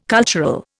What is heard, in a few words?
"cultural", Indian